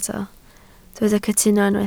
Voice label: "cytuno", Welsh